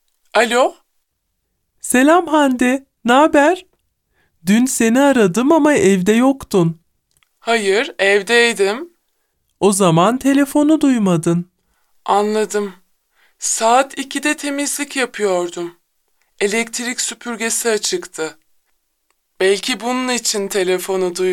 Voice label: dialog